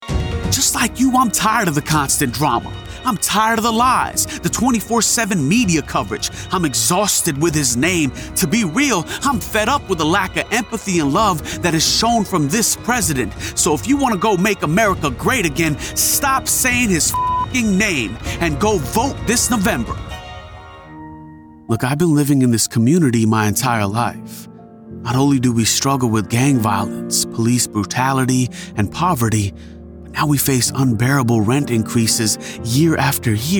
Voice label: Political, Young Urban Center Left Sincere and Heartfelt American Narration